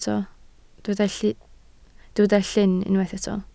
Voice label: "llyn", Welsh